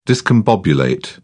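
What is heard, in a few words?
"discombobulate", English, British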